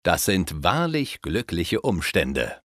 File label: Happy Circumstance, Speech German Advisors; Civ5